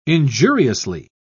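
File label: "injuriously", British English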